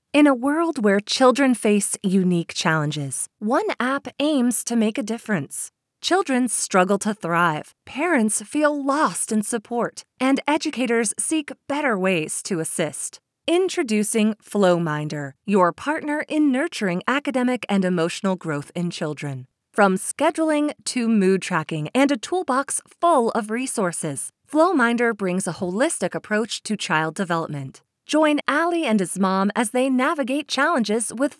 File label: Voiceover; Flowminder